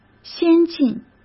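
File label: "先进", Mandarin Chinese; hsk; chinese-flashcards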